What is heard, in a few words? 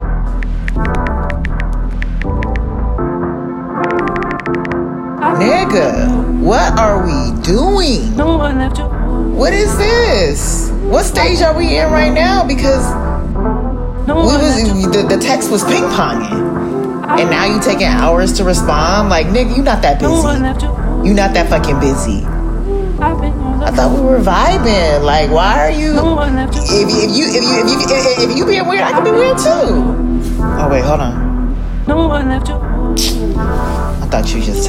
Narration; Issa Raes